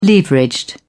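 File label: "leveraged", British English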